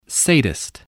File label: "sadist", English spelling